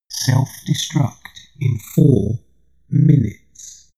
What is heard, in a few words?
shuttle male system status